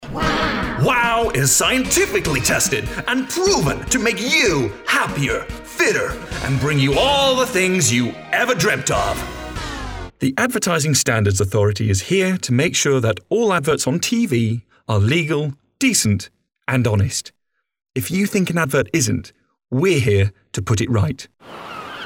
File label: Commercials